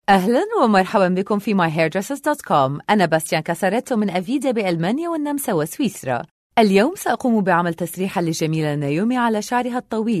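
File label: Arabic, commercial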